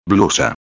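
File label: "blusa", Spanish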